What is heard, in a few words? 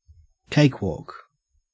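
"cakewalk" English, Australian accent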